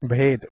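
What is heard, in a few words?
"bheid", Proto-Indo-European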